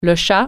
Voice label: "cat", French

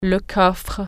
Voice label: "trunk", French

